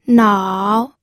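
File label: "nao", third tone, pinyin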